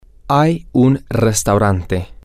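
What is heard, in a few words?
there is a restaurant, Spanish, male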